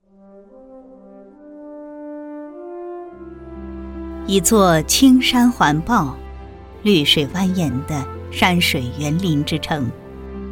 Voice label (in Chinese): 山水园林城宣传片